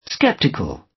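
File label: "skeptical", British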